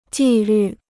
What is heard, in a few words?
"纪律", Chinese